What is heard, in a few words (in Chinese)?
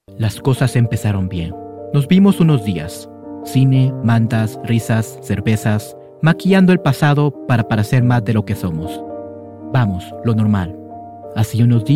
西班牙语男 稳重, 外语; 小语种